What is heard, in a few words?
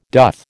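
"doth", American English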